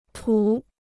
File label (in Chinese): "土", Chinese